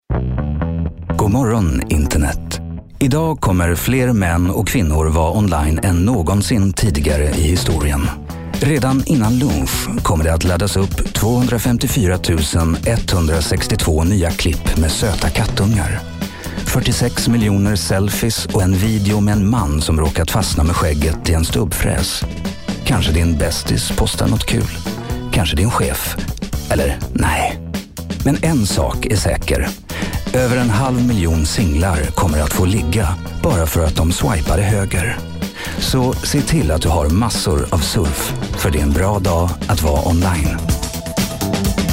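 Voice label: Swedish, male, reklam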